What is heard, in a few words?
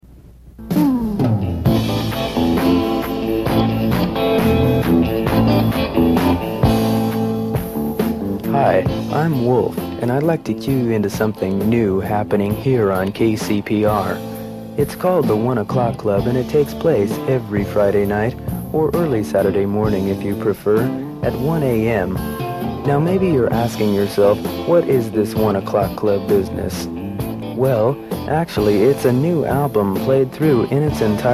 promo